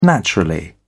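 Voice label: "naturally", British English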